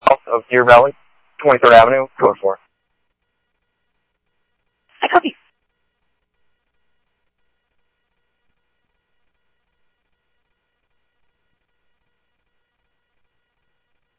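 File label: police department, scanner audio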